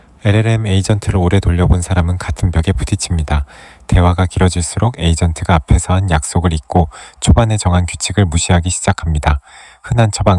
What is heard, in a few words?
audiobook ko; ai agent memory context engineering